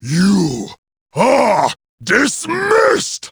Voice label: jeers; garrosh